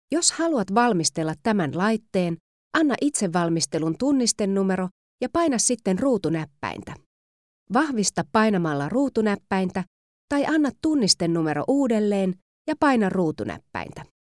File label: ivr